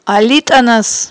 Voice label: "алитIанас", Aghul